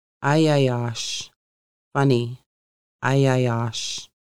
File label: funny, conversational Lushootseed